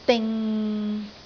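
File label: "ding6", Cantonese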